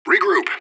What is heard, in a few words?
radio bot regroup; fbihrt